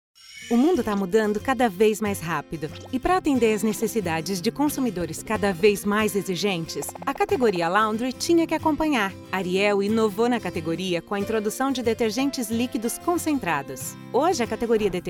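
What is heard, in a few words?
Brazilian Portuguese, female, e-learning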